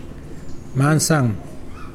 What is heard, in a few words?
bird, Mokilese